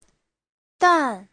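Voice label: "dàn", Mandarin Chinese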